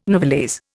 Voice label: "novelese", Indian